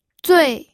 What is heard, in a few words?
"zui4", pinyin